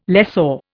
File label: "lessor", Indian